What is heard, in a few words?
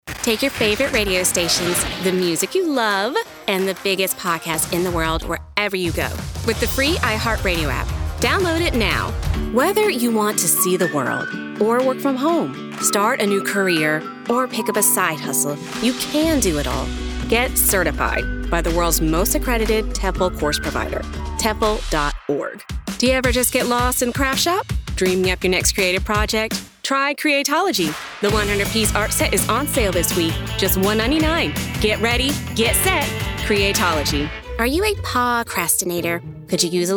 Voice Demo, bold, energetic demos